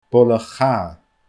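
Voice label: "boile chatha", Scottish Gaelic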